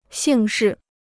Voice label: "姓氏", Chinese

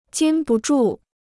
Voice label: "禁不住", Chinese

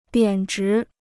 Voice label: "贬值", Chinese

